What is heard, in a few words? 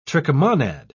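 "trichomonad", British English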